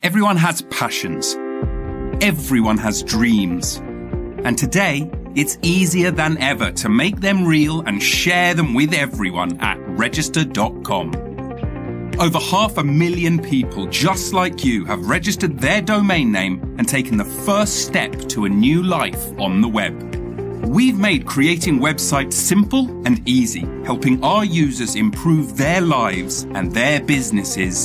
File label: english british